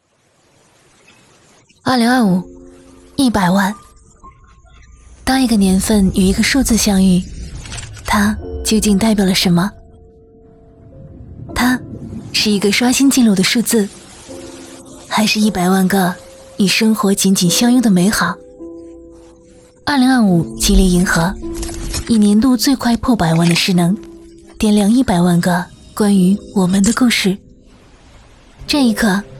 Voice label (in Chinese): 女 TVC